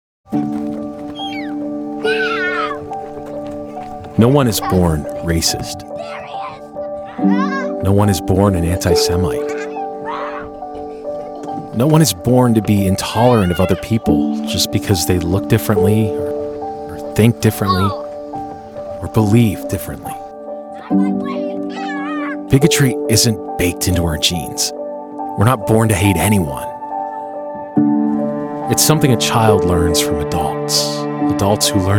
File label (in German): TV Werbespots Online Werbespots; NATIVE PROFISPRECHER